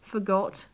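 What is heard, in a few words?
"forgot", British English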